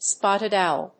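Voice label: "spotted owl", English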